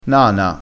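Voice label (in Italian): "nana", dialettale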